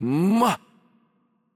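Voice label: voice over, Romanian; change player; bloom minigame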